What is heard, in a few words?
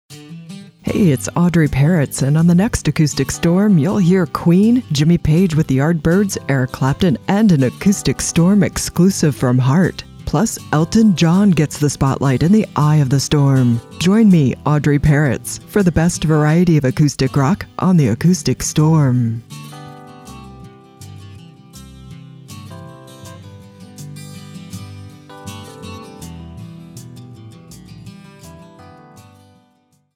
PROMO; Acoustic Storm; USRN-FM; kkcl-am